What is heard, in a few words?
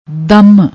"dam", Punjabi